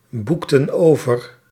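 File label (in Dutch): "boekten over", Dutch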